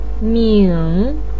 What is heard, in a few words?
"ming3", Chinese pinyin voice